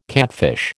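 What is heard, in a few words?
"catfish", American English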